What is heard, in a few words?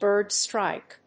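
"bird strike", English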